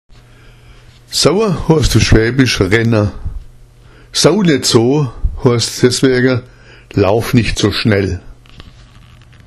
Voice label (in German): saua, schwaebisch